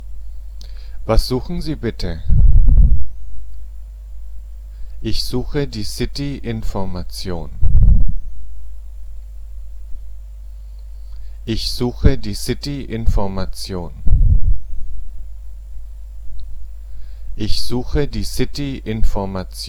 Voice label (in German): Sprachtraining, model answer; Compare your answer with the following model answer. Repeat the model answer twice.